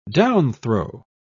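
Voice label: "downthrow", British English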